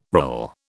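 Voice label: "rill", British English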